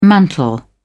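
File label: "mantle", English, British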